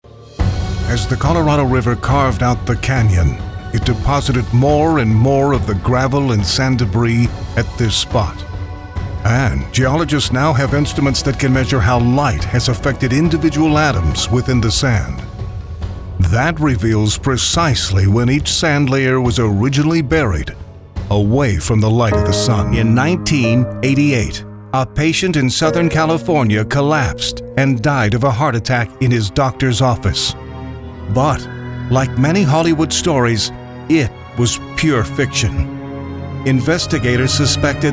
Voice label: narration, male